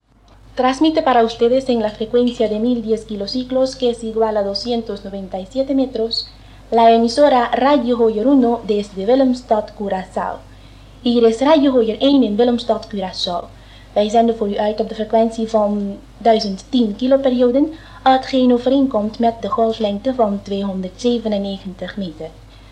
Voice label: station call